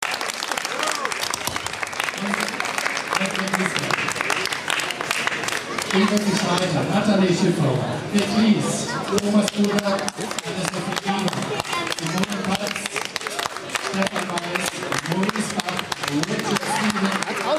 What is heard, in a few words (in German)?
Ansage